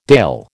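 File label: "dale", American English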